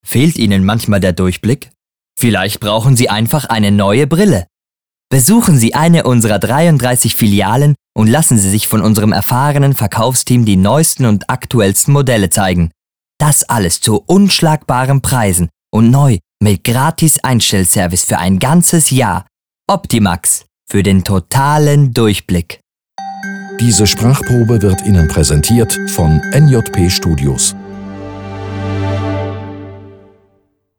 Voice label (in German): Spot sympathisch dt